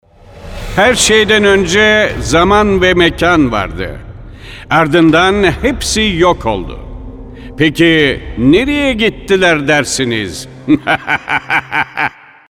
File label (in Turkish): animasyon; seslendirme